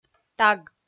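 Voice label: "таг", Komi, female; audio dict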